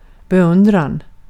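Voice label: Swedish vocabulary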